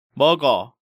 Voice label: "Meogeo", Korean

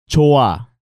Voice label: "Joa", Korean

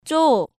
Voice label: "Jjo", Korean

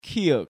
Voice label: "Kieuk", Korean

